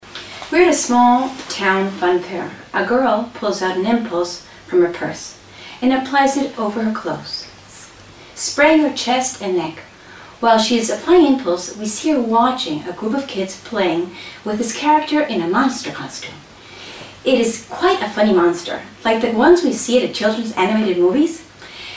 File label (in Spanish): ingles, Mujeres; Casting Impulse